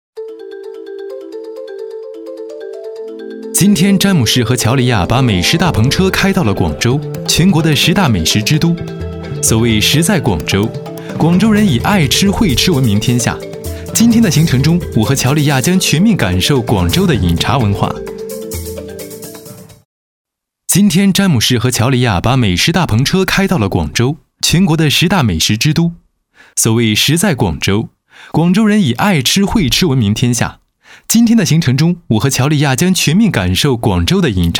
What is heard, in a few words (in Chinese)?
男 栏目导视 栏目美食